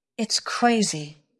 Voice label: Voice Acting es F